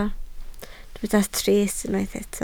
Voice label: "trist", Welsh